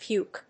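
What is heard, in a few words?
"puke", English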